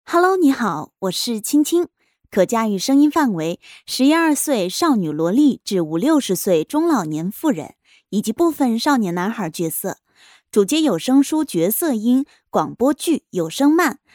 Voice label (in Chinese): 声线 展示 角色音